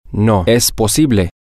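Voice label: Spanish, male; it is possible no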